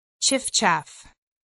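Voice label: "chiffchaff", English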